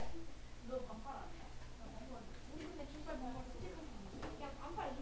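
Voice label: "lead", spoken word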